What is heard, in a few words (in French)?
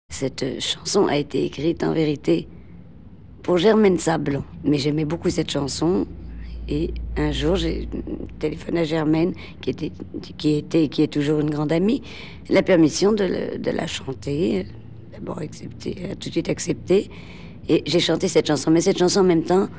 Commentaires